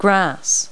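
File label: "grass", English; Multi-Lingual Talking Picture Dictionary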